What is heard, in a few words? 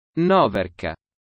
Stepmother latin